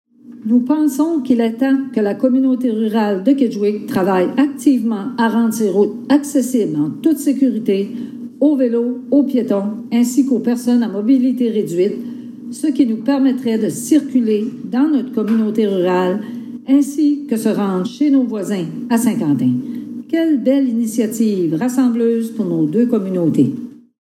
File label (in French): reportage; reunion kedgwick